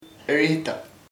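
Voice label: temple of head sien, Chamacoco word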